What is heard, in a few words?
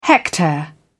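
"hectare", British English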